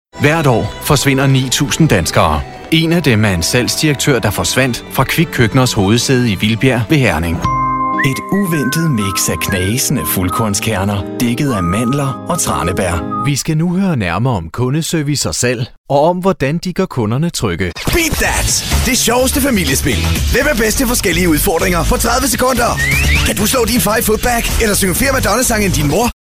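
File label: danish male commercial, voice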